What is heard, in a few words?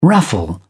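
"raffle", British English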